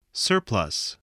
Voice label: "surplus", English

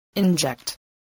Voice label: "inject", spelling voice

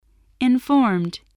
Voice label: "informed", spelling voice

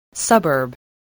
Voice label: "suburb", spelling

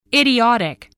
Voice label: "idiotic", voice spelling

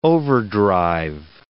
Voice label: "overdrive", English word

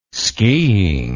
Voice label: "skiing", words

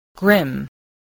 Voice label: "grim", spelling

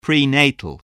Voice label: "prenatal", voice, spelling

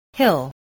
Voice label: "hill", English, spelling

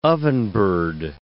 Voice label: "ovenbird", English

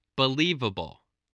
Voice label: "believable", English

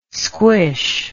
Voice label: "squish", English

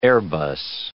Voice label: "airbus", English

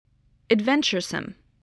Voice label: "adventuresome", English voice

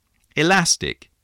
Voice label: "elastic", English

